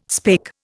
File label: "spake", Indian